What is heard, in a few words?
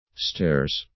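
"stairs", English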